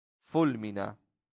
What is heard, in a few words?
"fulmina", latin; santillana